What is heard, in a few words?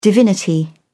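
"divinity", British English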